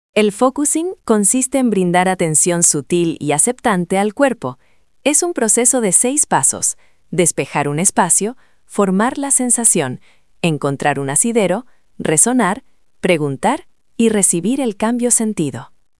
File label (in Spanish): locucion